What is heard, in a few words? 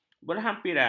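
"berhampiran", Malay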